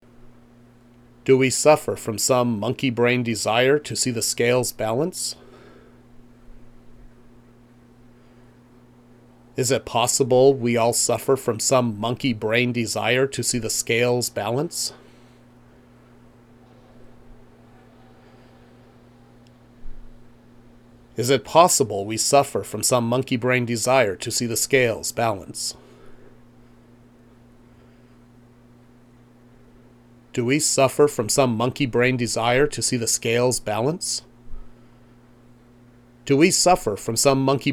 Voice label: Voiceover